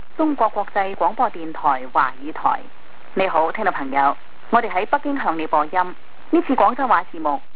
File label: cantonese; Chinese